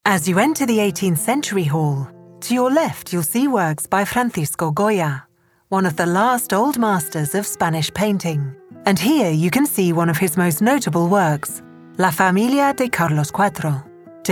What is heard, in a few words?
EN-UK-F; Julia